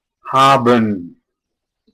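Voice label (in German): "haben", German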